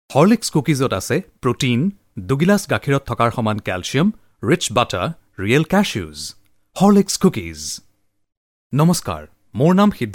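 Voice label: Assamese, Commercial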